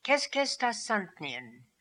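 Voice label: "Keskestasantnian", pronunciations; Fourth Way; Beelzebub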